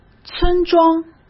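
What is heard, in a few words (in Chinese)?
"村庄", Mandarin Chinese; chinese flashcards; hsk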